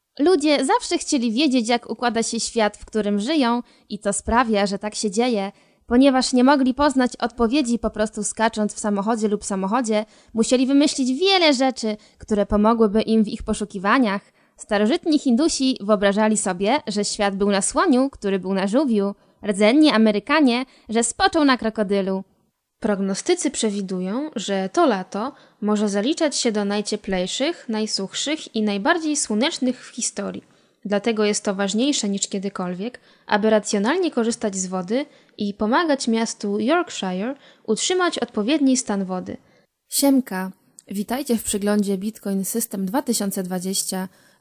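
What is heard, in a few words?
Polish, female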